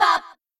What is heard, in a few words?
"rah rah sis boom ba boom", girls; cheerReaders